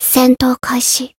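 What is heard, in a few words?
Voice encount Japanese; ruina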